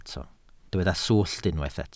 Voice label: "swllt", welsh